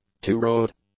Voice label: "two-rowed", English; adjectives